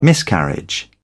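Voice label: "miscarriage", British English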